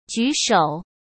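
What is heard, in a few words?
"举手", Mandarin Chinese; hsk; chinese-flashcards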